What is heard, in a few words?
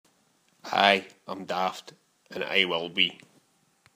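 "Aye", slang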